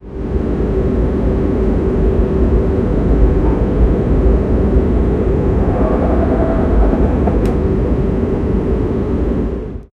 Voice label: attention please passenge, airport